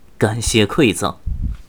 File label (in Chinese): 使用道具, 年轻的修士; ycrpg; BattleCry